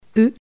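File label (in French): "oeuf", French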